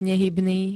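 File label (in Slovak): "nehybný", Slovak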